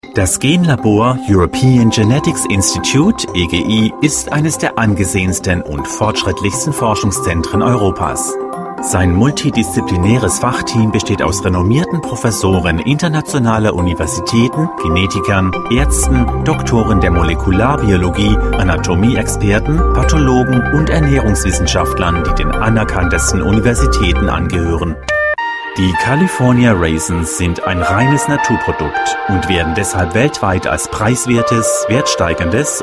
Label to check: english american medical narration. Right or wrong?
Wrong